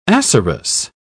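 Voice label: "acerous", British English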